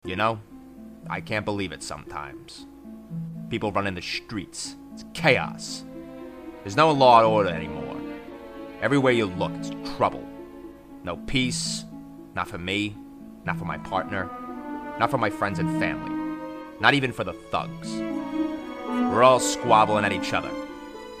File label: reading noir crime